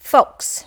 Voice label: "fox", english

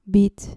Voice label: "beat", dutch

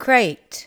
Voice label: "crate", english

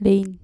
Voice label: "lane", dutch

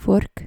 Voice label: "vork", Dutch

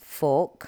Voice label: "fork", english

